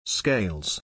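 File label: "scales", English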